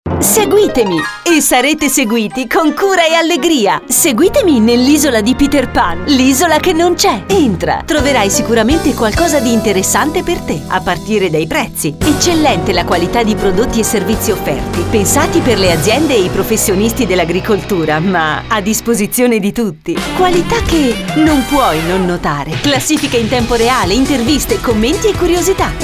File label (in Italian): speaker femminile